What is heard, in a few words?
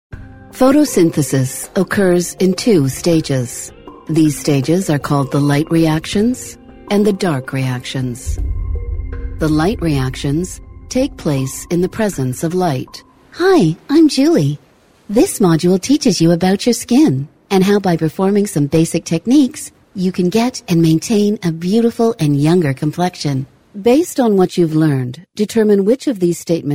english us and canada female e learning